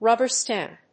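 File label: "rubber stamp", English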